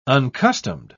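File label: "uncustomed", British English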